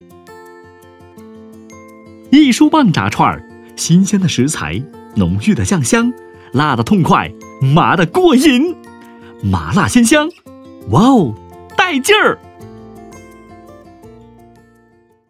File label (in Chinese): 街头—麻辣烫（叫卖式）